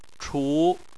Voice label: "chu2", chinese